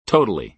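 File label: "totally", American English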